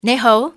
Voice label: good day, civ fmale; XBOX